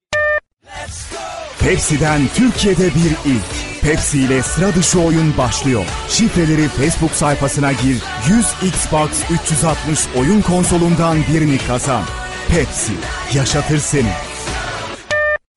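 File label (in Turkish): dinamik, seslendirme; enerjik tempolu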